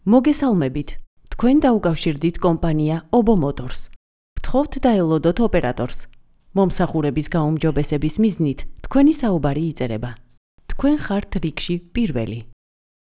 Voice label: call center; OBOMOTORS